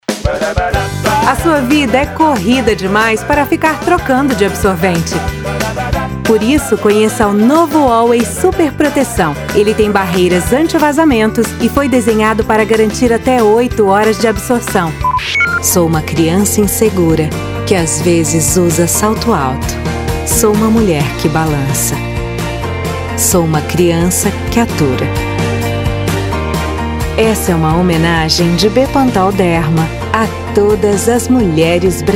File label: commercial Locutora; New Jobs